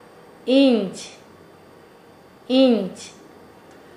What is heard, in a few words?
"tu usted masculino", Nasa Yuwe; paez